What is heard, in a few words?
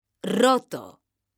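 "roto", Spanish, female; mekanta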